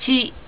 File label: "chi", Japanese